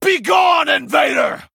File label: Male Night Elf Attack; Ravencrest